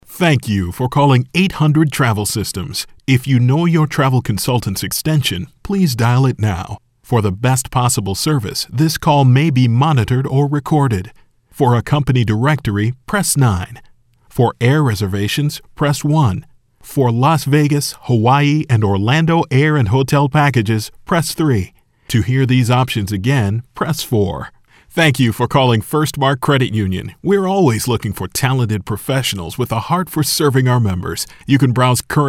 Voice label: Male Voice Over, Message On Hold

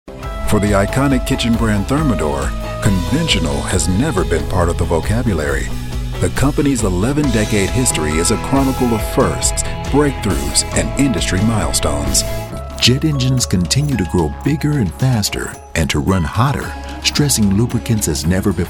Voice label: NARRATION CORPORATE INDUSTRIAL, Male Voice Over

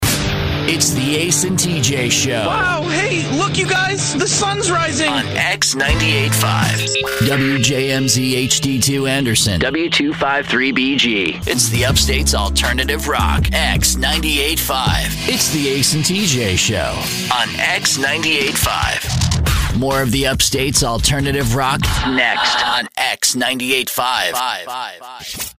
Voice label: Imaging, male voice over; X985FM; The VoiceOver Guys

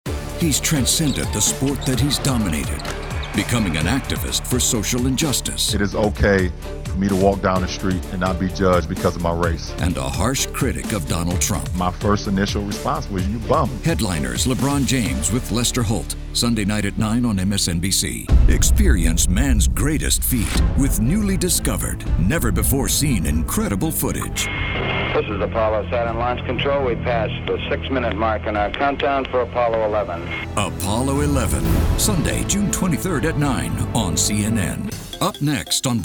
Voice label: Male Voice Over Talents TV Promo Affiliate News

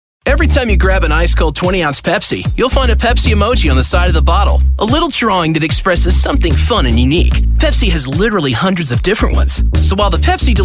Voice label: Male Voice Over; Pepsi Emoji

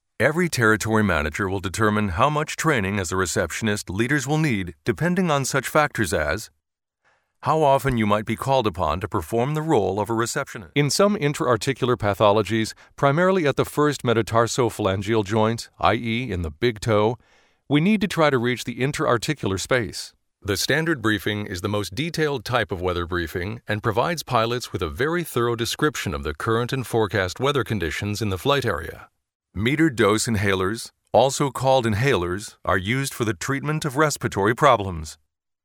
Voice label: Male Voice Over E Learning

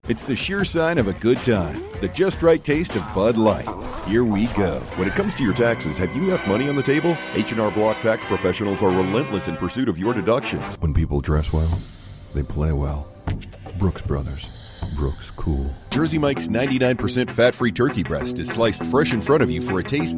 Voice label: Commercial, male voice over

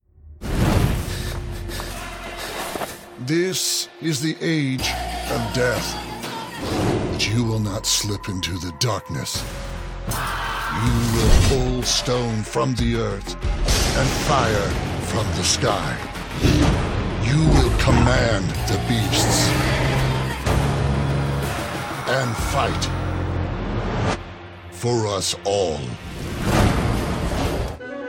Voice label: Male Voice Over Talent Trailer

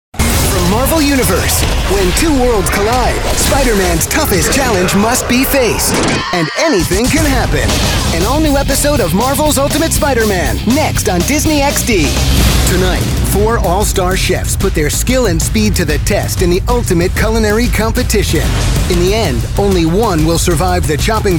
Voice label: Male Voice Over Talent Promo

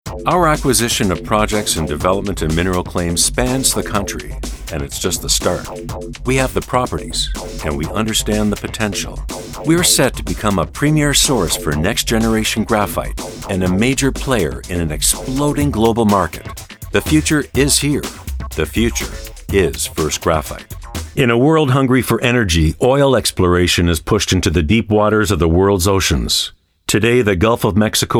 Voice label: Male Voice Over Talent Non Broadcast Narration

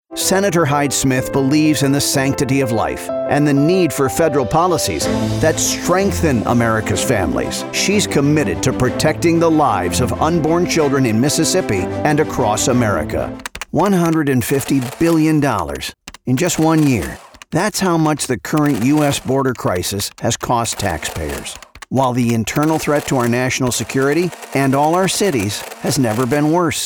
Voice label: Political Commercial

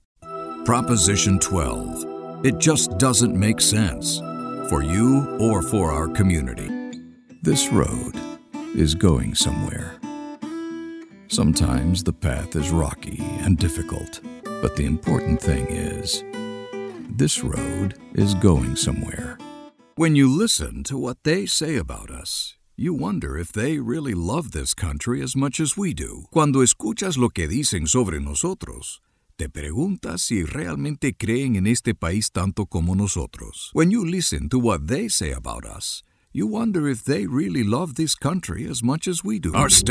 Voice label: Male Voice Over, Political Commercial

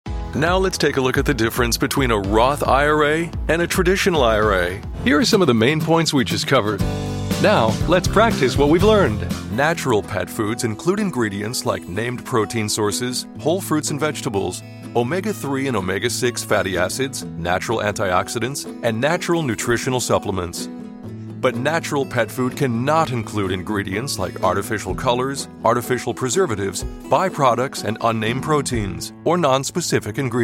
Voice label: Male Voice Over Talents E Learning

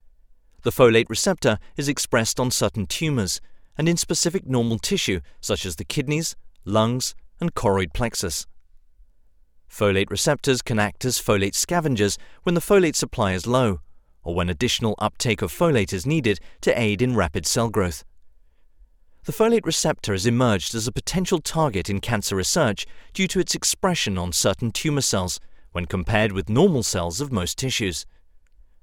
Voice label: Male Voice Over Talents Medical Narration British

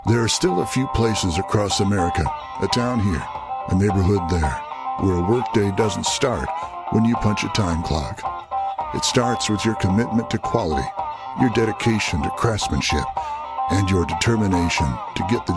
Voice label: Male Voice Over Talent Commercial

